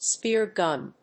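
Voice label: "spear gun", English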